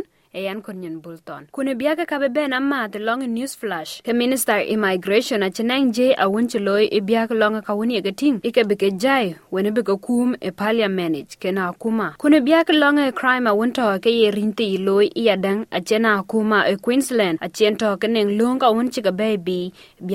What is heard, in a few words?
dinka, podcast; SBS Dinka News Flash 13/02/2023; Minister Immigration aci ya thiɛch ku thiɛch ee parliament ekenne Immigration Detention. Ku ne Queensland, ke akuma ä